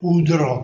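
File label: "udro", Proto-Indo-European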